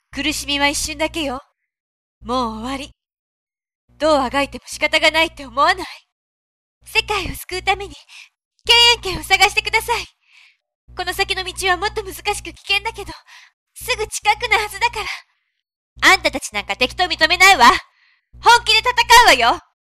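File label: Japanese game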